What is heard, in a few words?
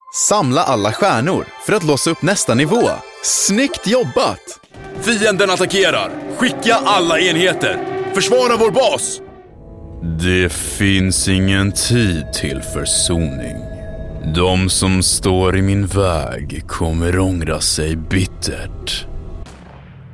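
Swedish Gameplayer Characters, Deep, warm and naturally engaging Swedish male voice; Character / Cartoon; Animated Character Collection; Video Games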